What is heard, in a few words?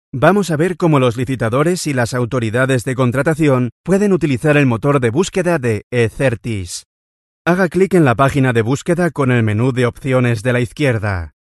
elearning